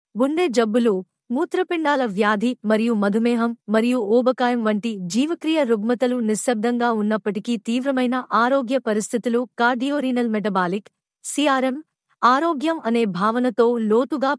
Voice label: video Female Telugu